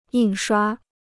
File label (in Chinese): "印刷", Chinese